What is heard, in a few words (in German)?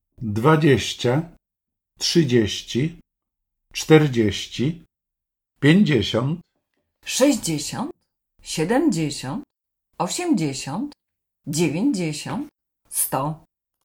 polnisch; Sprachkalender Polnisch 2025